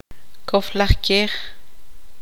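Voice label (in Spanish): "burro", Tz'utujil, female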